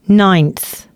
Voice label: announcements female